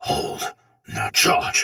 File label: Attack Normal Soldier Sword Agent Male, Battle; Dialog; Barks; Melee; Sinecure